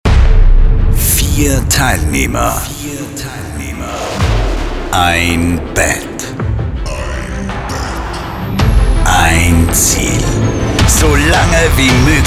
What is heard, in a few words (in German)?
Radio promo; Life Radio; Bett Battle